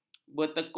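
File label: "bertekun", Malay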